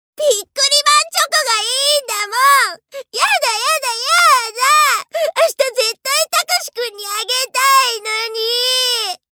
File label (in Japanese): 泣き叫ぶ子供